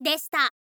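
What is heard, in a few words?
"deshita", Japanese, girl; voice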